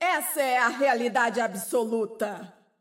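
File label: Portuguese; 秩序之光（守望先锋）; 终极技能; 主动/敌方释放语音; 葡萄牙语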